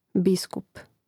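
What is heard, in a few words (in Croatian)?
"biskup", Hrvatski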